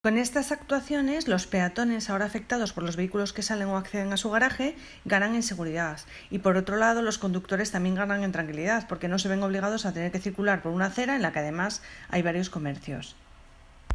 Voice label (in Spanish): nota voz portavoz